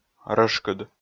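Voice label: "рӧшкыд", Komi, male; kpv audio dict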